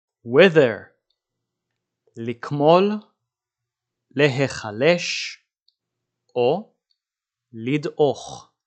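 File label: "wither" English, "לקמול להיחלש לדעוך" Hebrew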